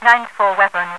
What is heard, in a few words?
weapon, radio voice; hl2